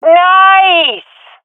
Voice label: round winning, swat fem; Counter Strike Model Pack; CS:GO